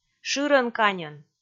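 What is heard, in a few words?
"шырӧн-каньӧн", Komi, female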